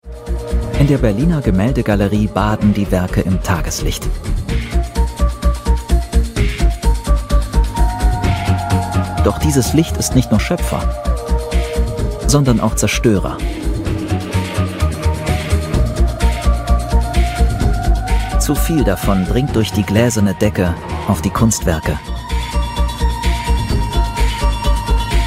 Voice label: Commentary; arte